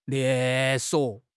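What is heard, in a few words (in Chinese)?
"连锁", Chinese; HSK; HSK6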